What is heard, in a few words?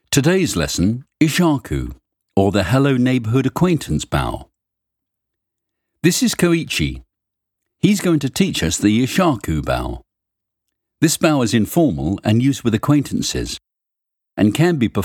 english british e-learning; Inglés (Reino Unido)